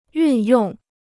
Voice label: "运用", Chinese